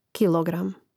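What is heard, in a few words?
"kilogram", Croatian; Mrežnik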